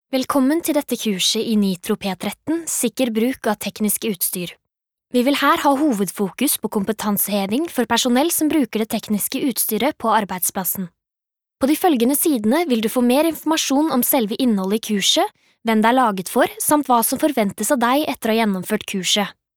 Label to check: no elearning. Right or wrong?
Right